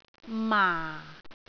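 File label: "maa4", Cantonese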